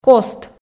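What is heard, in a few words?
"кост", Komi, female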